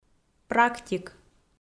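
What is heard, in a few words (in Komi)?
"практик" Komi, female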